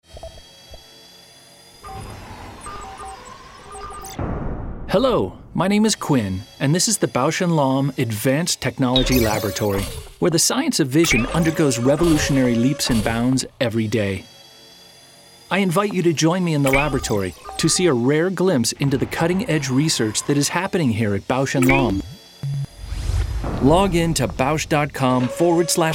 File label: Promotional